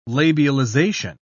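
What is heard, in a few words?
"labialization", British English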